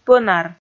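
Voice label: "пӧнар", Komi, female; kpv audio dict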